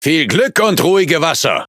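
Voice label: Speech Quick Commands Good Luck, German; World Of Warships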